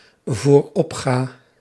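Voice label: "vooropga", Dutch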